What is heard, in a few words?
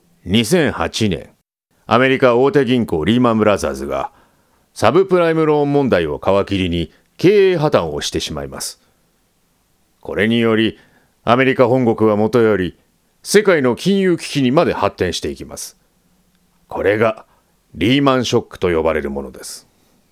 Voiceover